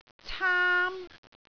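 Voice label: "caam1", Cantonese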